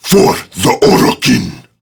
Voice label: Idle Barks Prime Grineer Male; Tau; Battle Barks

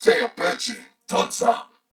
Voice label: Patrol, Corpus Venus Male; Dialog; Barks; Battle; Warframe; CorpusVenusMaleA

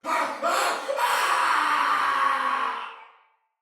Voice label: Death Fire Soldier Male, Battle; Barks; Dialog; Sinecure Soldier VIP Male A

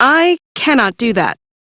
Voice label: Reject, Female; en; Warframe; Railjack Crew; Cephalon Suda

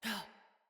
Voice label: Jump Female, Battle Barks; Envoy

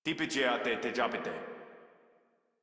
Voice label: Patrol Modular Corpus Male; Dialog; Barks; Battle; Warframe

